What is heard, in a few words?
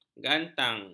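"gantang", Malay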